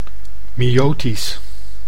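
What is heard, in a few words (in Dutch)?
"miotisch", Dutch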